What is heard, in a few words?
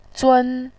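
"zun" Mandarin Chinese, first tone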